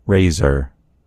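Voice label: "razor", American English; gcompris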